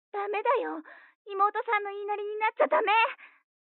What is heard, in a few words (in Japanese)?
彼女の電話台詞, ASMR